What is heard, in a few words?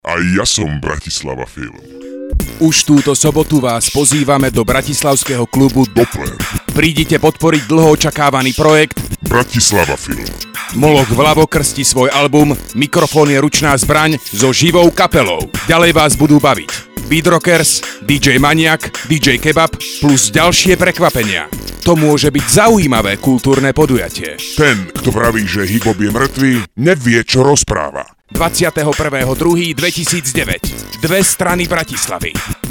radio spot; Bratislavy